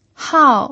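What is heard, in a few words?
"hao", fourth tone, Mandarin Chinese pinyin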